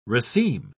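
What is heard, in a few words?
"raceme", English, uk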